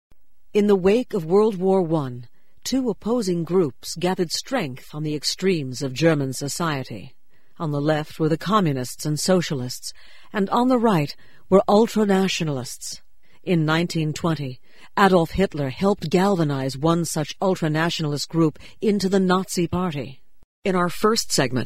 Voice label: Narration